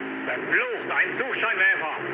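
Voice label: German searchlight mood med, speech; War Thunder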